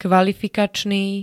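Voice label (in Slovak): "kvalifikačný", Slovak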